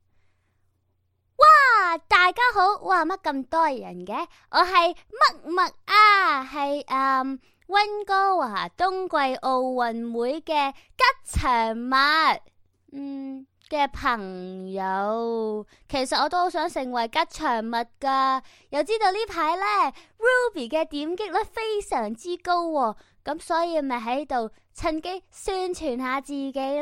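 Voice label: Chinese Cantonese female Child; voice over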